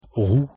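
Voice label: pronunciation "roue", French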